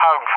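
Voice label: "Hawg", English, RUSSIA; CallSign; DCS; Allied Flight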